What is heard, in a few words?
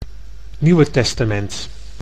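"nieuwe testament", Dutch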